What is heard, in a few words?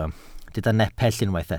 "nepell", welsh